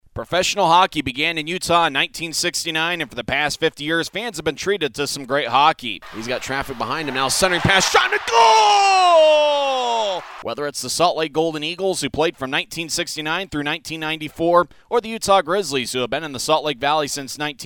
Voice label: Commercial